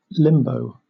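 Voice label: "limbo", British English; pronunciations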